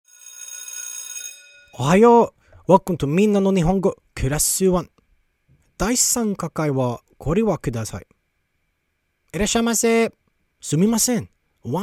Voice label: male japanese narration